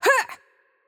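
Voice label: voice over, Hungarian; Change Player; bloom minigame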